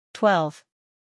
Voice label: "twelve", English

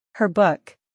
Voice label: "her book", English

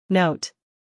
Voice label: "note", English

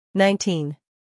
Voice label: "nineteen", English

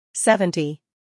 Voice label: "seventy", English

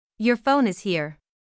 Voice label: "Your phone is here", English

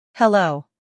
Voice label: "hello", English

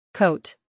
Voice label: "coat", English

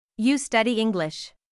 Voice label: "You study English", English

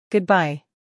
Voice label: "goodbye", English

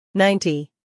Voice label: "ninety", English

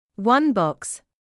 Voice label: "one box", English

